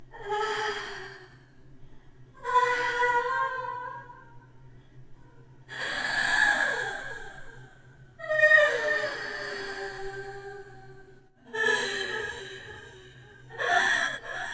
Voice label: Rpg Ghost Battle Sounds

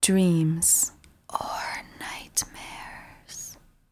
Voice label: Dreams Or Nightmares Whisper

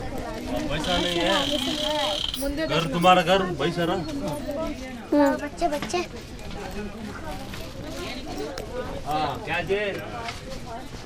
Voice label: Indian Street Voices

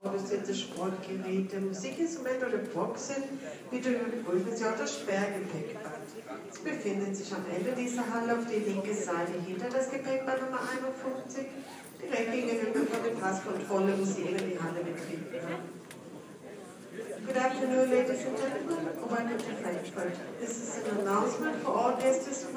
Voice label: German English Airport Announcement

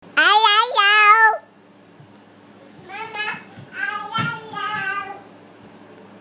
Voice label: I Love You 1 Year Old Boy

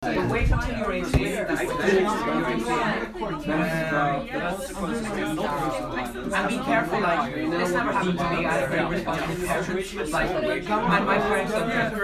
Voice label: People Talking In Small Room

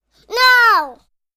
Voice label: Child Says No

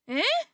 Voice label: Eh Surprised

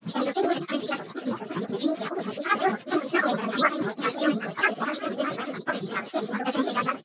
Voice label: Quick Gossip

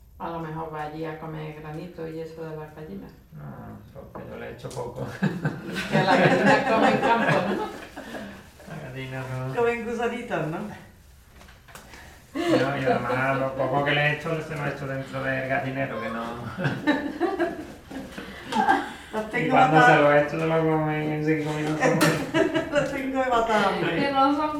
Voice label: Conversation Fireplace

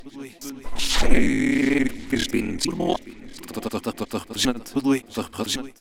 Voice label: Gibberish man norm pitch

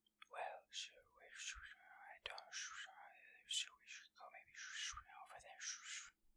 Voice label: Whisper

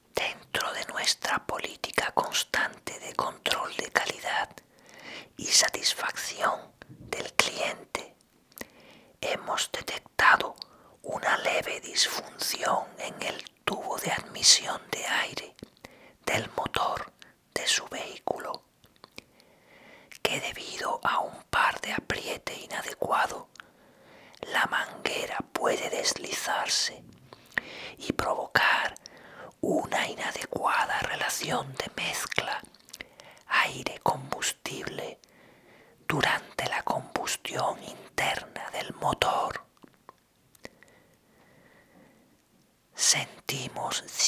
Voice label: Whispering

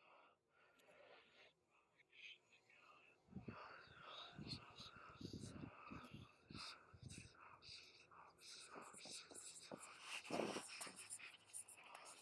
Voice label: Sinister Whispering

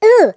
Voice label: Uhhh Mouse Squirrel Cartoon

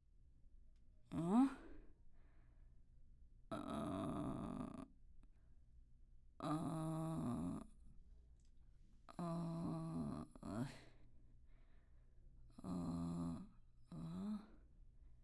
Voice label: Whisper Strangeness Woman

